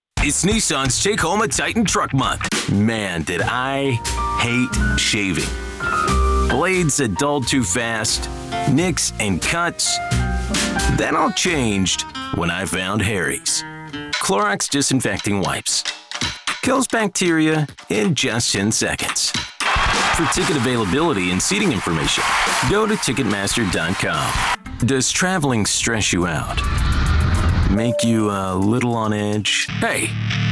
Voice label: Commercial Demo